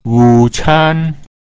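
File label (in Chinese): "wu can", Mandarin Chinese pinyin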